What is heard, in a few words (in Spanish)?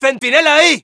Scout sentry ahead, es